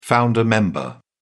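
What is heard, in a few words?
"founder member", English, British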